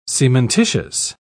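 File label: "cementitious", British English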